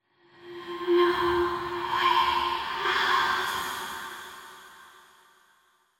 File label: Whispers Tense; Silent Hill Downpour